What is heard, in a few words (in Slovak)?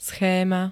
"schéma", slovenské